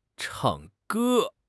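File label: "chang4 ge1", Mandarin Chinese; HSK2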